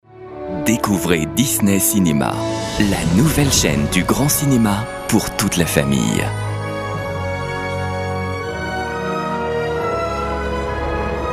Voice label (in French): warm reassuring, french voice over; Disney Cinema